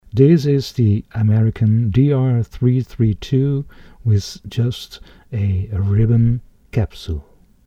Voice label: American DR332 sound UK

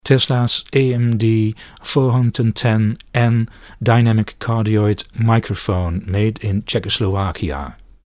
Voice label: Tesla AMD 410 N UK

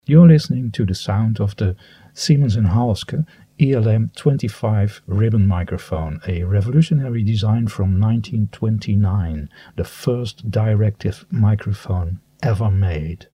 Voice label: Siemens & Halske ELM 25 UK

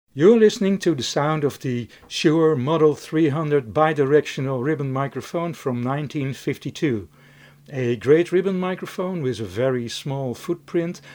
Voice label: Shure 300 Sound UK

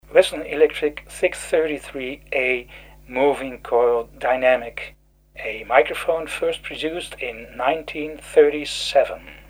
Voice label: Western Electric 633A sound UK

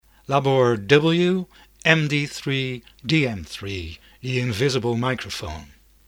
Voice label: Labor W MD3 DM3 sound UK

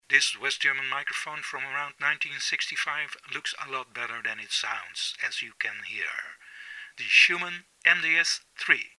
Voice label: Schumann MDS-3 sound

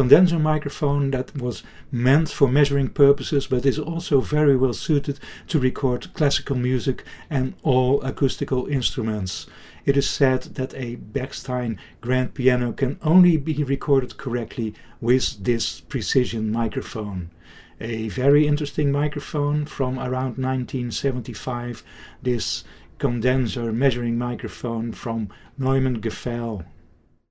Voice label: RFT MV102 sound UK

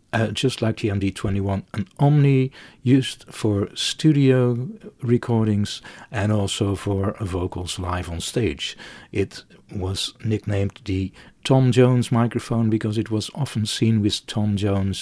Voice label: Sennheiser MD 211 sound UK

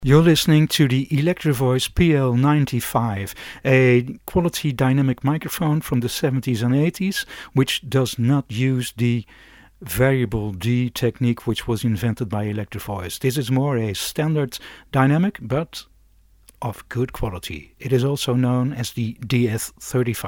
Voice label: EV PL95 DS35 sound UK

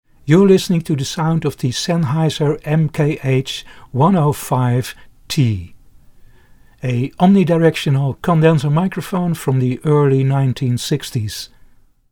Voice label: Sennheiser MKH 105 T sound UK

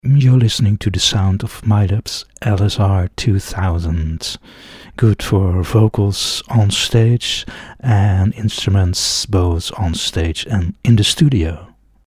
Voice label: Milab LSR 2000 English

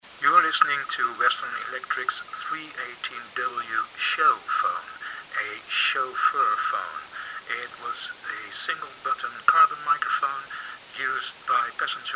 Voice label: WE 318-W Chau-phone sound UK

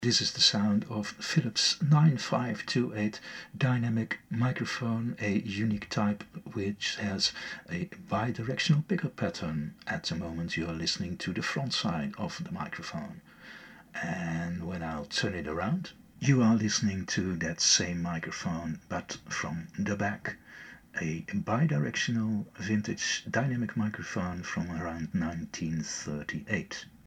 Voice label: Philips 9528 UK FRONT BACK

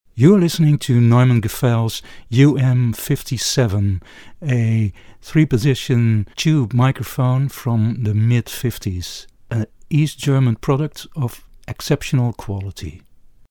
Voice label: Neumann UM57 sound UK

